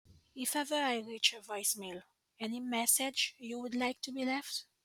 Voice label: Voicemail